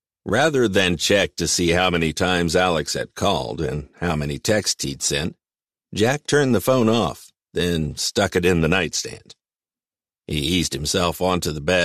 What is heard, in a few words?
Third Person Male Fiction Fantasy